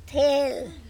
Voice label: "THel", andamanese

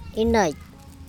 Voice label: "inEC", andamanese

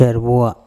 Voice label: "erboa" lips, andamanese

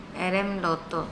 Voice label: he comes again and again erem lOto, Andamanese

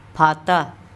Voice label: "phata", andamanese; insect larva

